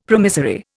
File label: "promissory", Indian; Dictionary